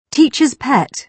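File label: "teacher's pet", British English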